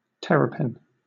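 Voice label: "terrapin", British; pronunciations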